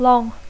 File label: "long", English